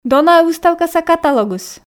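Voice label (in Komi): дона-ӧ выставкаса каталогыс, Komi, female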